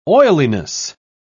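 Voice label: "oiliness", British English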